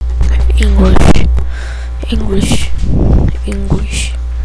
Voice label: English

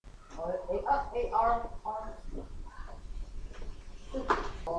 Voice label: announcement

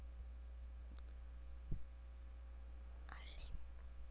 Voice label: announcement

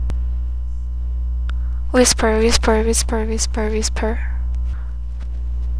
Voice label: whisper

